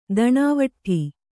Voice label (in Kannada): "ದಣಾವಟ್ಠಿ", Kannada